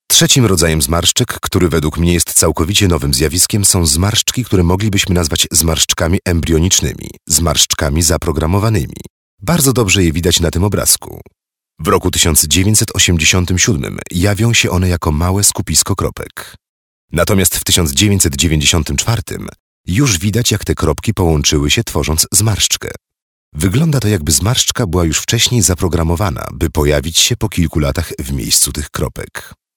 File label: Male VO Corporate